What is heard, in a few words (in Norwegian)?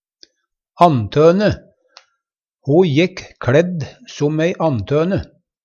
"antone", Numedalsmål